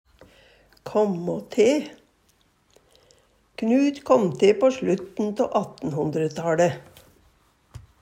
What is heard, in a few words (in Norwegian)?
kamma te, Numedalsmål; bli fødd, fødast; Uttrykk